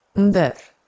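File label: "ndher", Proto-Indo-European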